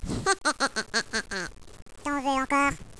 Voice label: children taunt; valve